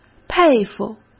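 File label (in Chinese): "佩服", Mandarin Chinese; hsk; chinese-flashcards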